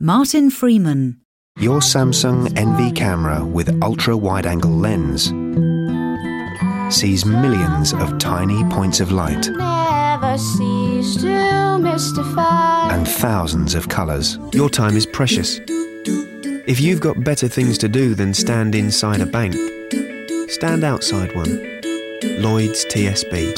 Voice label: commercial